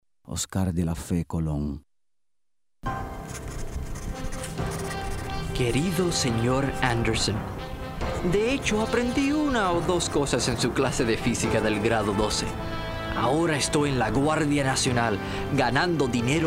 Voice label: languages spanish